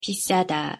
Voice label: "비싸다", Korean; pronunciation